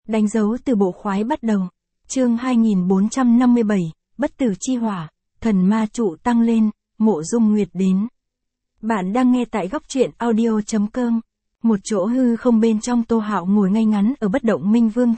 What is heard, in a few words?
vi-VN Standard; danh dau tu bo khoai bat dau